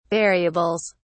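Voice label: "variables", British English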